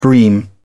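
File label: "bream", British English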